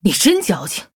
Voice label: Female Human Attack, zh